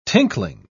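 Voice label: "tinkling", English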